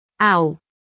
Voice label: Phoneme, Female; Commonscript; Lynguistykon